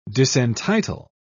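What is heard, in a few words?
"disentitle", British English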